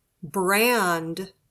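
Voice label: "brand", English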